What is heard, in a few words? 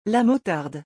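"la motarde", French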